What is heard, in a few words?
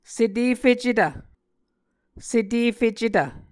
"setefecetv", Muscogee